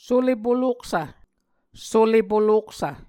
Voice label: "sule poloksv", Muscogee

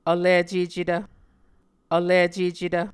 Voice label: "vlicecetv", Muscogee

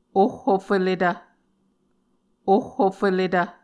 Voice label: "ohhofelletv", Muscogee; Mvskoke Words with Repeated Consonants; Onvkv Ohhvyayices; A Dictionary of Creek/Muskogee; SIL International

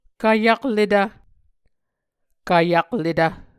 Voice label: "kvyakletv", Muscogee

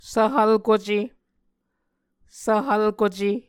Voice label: "svhvlkuce", Muscogee

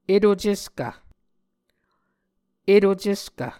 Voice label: "eto-ceskv", muscogee

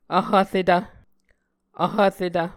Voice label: "vhvretv", Muscogee

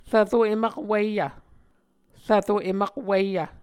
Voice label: "rvro em akwiyv", Muscogee

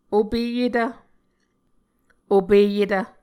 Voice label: "opeyetv", Muscogee

